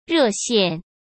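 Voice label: "热线", Mandarin Chinese; chinese-flashcards; hsk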